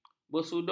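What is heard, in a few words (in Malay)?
"bersudut", Malay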